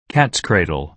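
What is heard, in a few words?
"cat's cradle", American English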